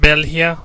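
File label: belgium, Spanish; gcompris-data; geography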